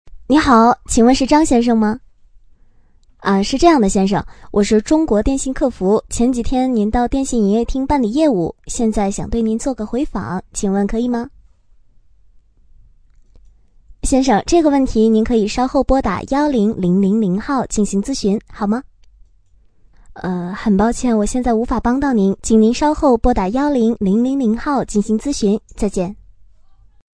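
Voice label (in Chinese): 女话术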